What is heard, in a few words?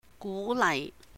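"gú laih 鼓勵", Cantonese; encourage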